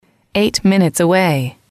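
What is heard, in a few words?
eight Minutes Away, english, female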